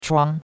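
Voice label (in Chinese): "chuang1", Chinese; Cours-de-Chinois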